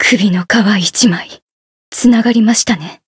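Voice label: Voice win barely Japanese; felmina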